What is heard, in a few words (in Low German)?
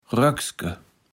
"Röckske", Platt